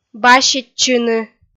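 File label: "баситчыны" Komi, female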